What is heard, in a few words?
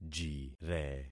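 "jire", concatenated syllables; Affixing experiment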